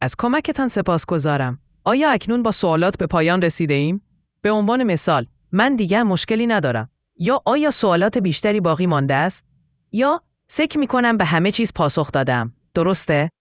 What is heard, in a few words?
persian